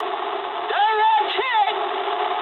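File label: English bomb success mood high; speech; War Thunder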